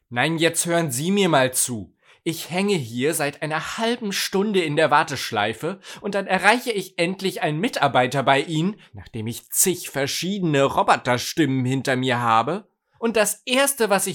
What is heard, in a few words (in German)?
Telefongespraech